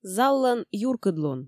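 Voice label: "заллӧн юркыдлун", Komi, female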